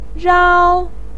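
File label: "rao", Mandarin Chinese, first tone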